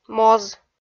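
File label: "моз" Komi, female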